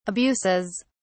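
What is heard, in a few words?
"abuses", English, British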